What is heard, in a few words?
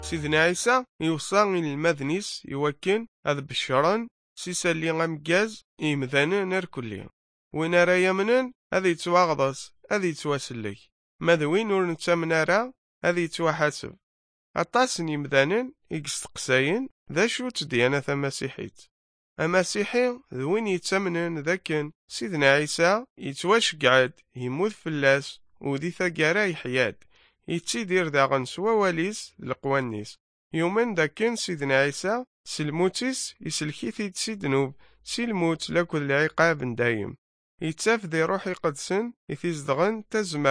nt drama, kabyle; asali amegaz good news